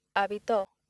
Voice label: "habito", accent; laboratori fonetica; practiques accent

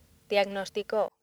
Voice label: "diagnostico", accent; laboratori fonetica; practiques accent

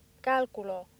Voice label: "calculo", accent; laboratori fonetica; practiques accent

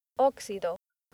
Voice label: "oxido", accent; laboratori fonetica; practiques accent

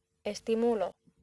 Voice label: "estimulo", accent; laboratori fonetica; practiques accent

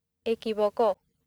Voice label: "equivoco", accent; laboratori fonetica; practiques accent

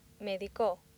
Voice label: "medico", accent; laboratori fonetica; practiques accent

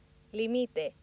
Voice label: "limite", accent; laboratori fonetica; practiques accent

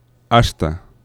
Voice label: "asta", fricatives; laboratori fonetica

